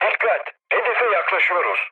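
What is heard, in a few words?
Turkish near waypoint, mood low, speech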